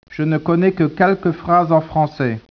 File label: speech, French